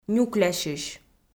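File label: "нюклясьысь", Komi, female; kpv audio dict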